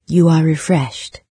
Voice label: refreshed, en-UK, NARRATOR; Fate